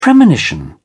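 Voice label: "premonition", British English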